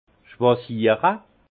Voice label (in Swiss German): "schwasiere", alsace; vin; choisir